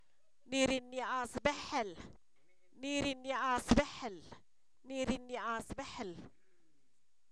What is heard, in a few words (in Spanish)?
trampa pescado, Zapoteco, san miguel albarradas; objetos inanimados